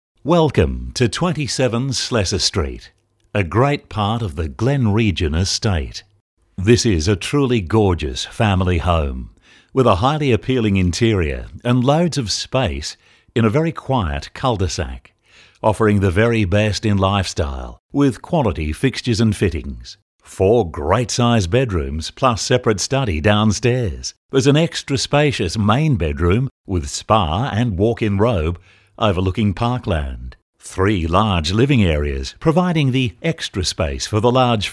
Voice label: VR narration

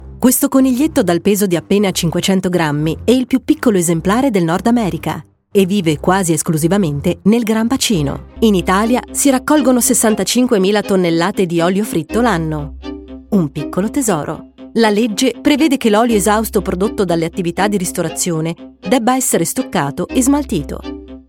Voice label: DOCUMENTARIES

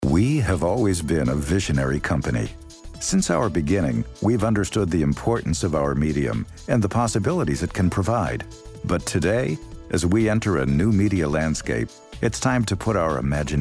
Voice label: Corp Industrial

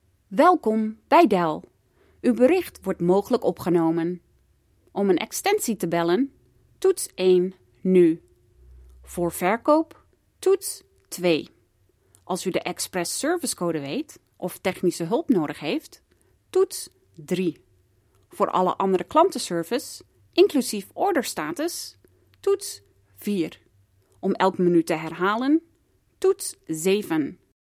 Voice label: Dutch Female IVR Phone

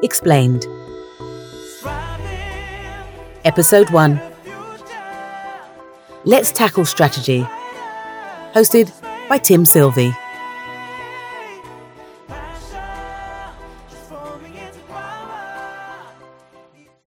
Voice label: Podcast Audition; Aggreko

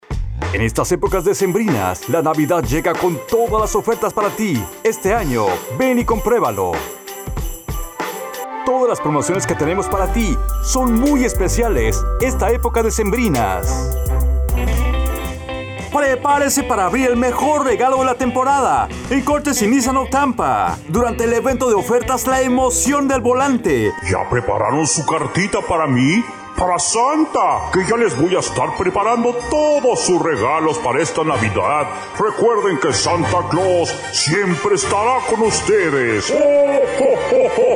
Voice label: Male

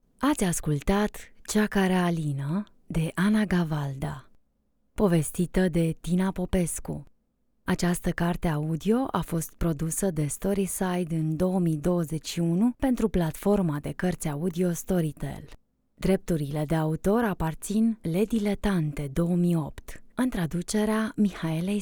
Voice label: Sample Audiobook Credits

